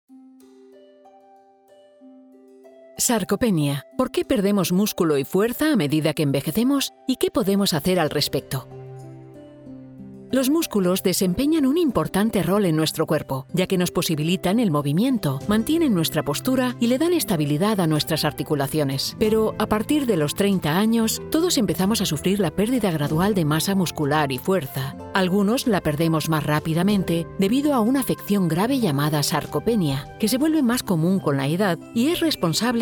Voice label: E-LEARNING; SARCOPENIA